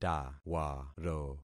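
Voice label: "tawaro", concatenated syllables; Affixing experiment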